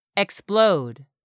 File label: "explode", English; 3000 words